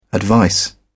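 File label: "advice", British English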